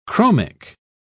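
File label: "chromic", British English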